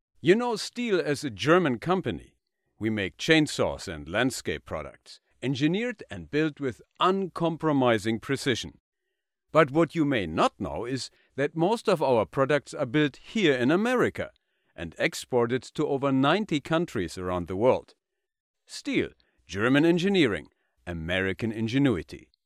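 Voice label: German US Accent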